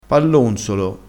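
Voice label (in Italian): "pallonzolo", dialettale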